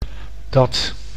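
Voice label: "dat", Dutch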